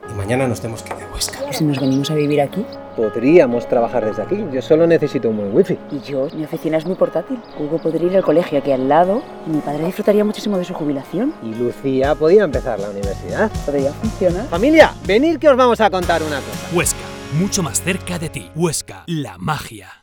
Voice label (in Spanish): Spot Cuña de radio; TuHuesca